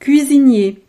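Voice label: "cuisinier", French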